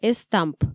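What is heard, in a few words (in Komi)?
"эстамп", Komi, female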